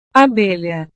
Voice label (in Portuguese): "abelha", portugues